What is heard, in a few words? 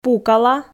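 "пукала" Komi, female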